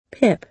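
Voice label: "pip", American English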